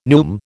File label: "neume", American